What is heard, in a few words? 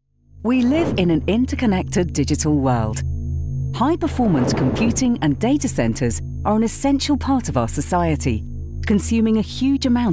corporate narration